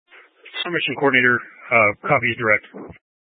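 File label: Incident Tac 1, Scanner audio recording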